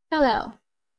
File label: VoiceActing es